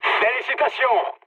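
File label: French player killed enemy mood med; War Thunder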